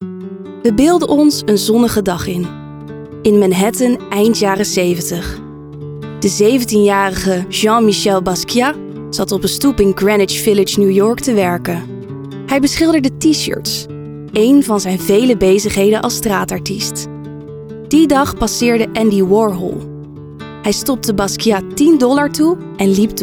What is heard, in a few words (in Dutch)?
Narratief Corporate